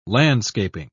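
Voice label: "landscaping", British English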